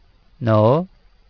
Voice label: NINE, DARI

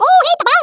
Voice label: "Fireball", Speech, Dutch; International; 11khz

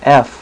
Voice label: "f", male, alphabet; Web Clip Empire 150,000

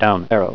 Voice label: Down Arrow, Voice - slow; TalkingKeys

